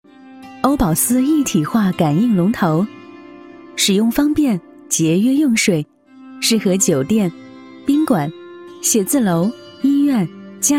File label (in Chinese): 女 安装解说; 欧宝斯